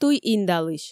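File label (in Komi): туй индалысь, Komi, female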